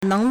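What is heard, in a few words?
"neng2", Mandarin Chinese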